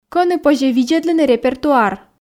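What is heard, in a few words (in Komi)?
кӧні позьӧ виддзӧдлыны репертуар, Komi, female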